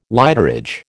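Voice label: "lighterage", American English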